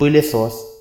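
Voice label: vacuum cleaner, Russian; household; tuxpaint-stamps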